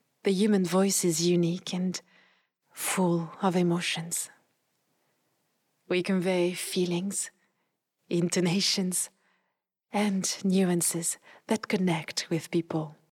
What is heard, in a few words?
English Moderate French Accent